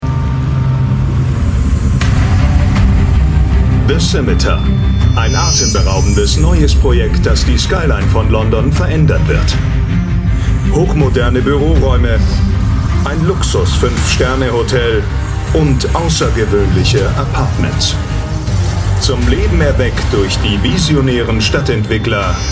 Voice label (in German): Ansager; Bauprojekt